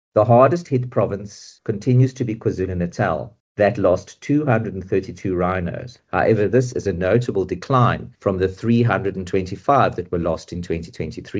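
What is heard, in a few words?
English; renosterstropery neem toe in Krugerwildtuin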